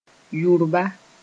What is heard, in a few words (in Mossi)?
"yurba", moore